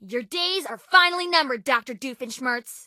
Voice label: English Voice

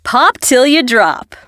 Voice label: dialogue, Balloon Popper Expressions

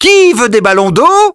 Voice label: French - waterballoon welcome vo; dialogue; birthday party bash; wii

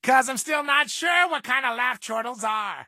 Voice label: English Voice

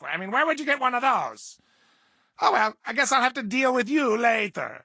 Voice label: English Voice

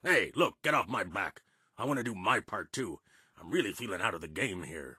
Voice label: English Voice

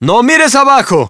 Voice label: Spanish - dialogue, Rock Climber Expressions

